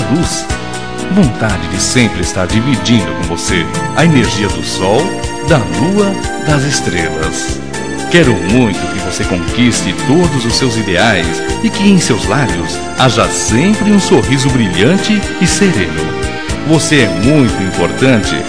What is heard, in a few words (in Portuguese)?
MENSAGEM CARINHO MASC; Telemensagens; Telemensagens 2017